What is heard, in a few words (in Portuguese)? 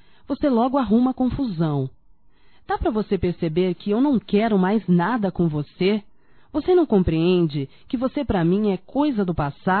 Ex-marido não larga do meu pé Feminino, Broncas; Telemensagens 2017